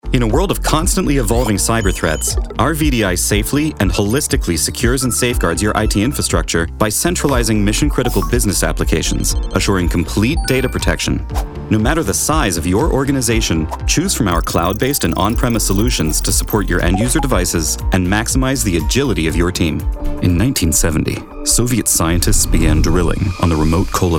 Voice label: Narration